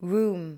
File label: "roem", english